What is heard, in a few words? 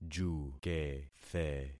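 "jukethe", concatenated syllables; Affixing experiment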